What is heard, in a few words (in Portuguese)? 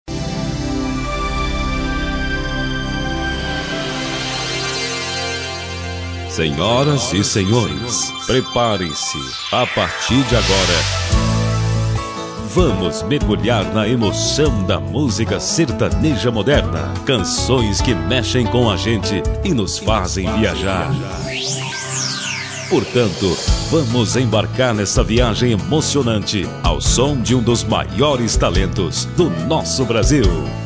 vinheta